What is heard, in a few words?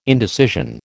"indecision", American